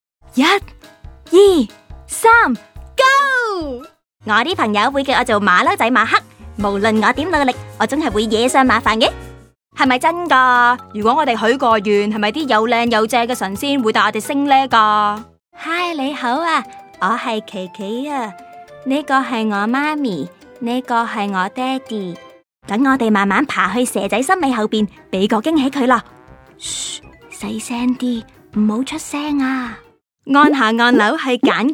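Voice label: Cantonese Toys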